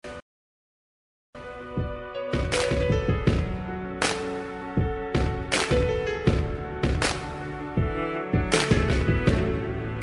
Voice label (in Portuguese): enteada fem, Aniversário de Enteada – Voz Feminina; Telemensagens para todas as ocasiões